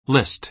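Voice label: "list", American English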